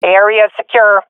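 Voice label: sees area clear, swat female; vo; Counter Strike Model Pack; CS:GO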